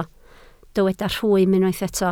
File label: "rhwym", Welsh